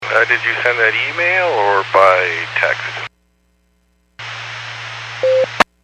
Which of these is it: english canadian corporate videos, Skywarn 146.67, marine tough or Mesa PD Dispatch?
Skywarn 146.67